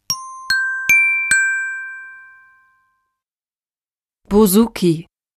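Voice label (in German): "Bouzouki", German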